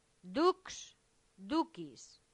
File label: "dux ducis", latin; santillana